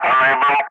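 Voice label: "unable", English, Wingman; Ka-50; DCS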